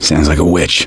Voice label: heard witch, survivor voice; gambler